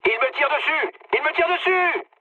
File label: aircraft damaged mood med, speech fr; War Thunder